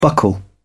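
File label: "buckle down", English, British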